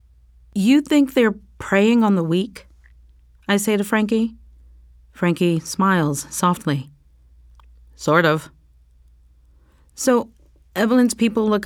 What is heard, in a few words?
Fiction Sample, Narration FICTION sample (dialogue